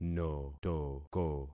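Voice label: "notoko", concatenated syllables; Affixing experiment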